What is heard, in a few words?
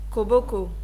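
"koboko", Nigerian Pidgin, female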